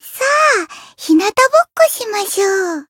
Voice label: Voice win, jp; soira